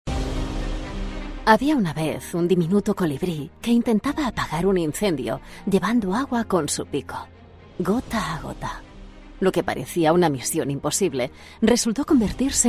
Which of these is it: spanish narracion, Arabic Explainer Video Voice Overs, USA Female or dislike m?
spanish narracion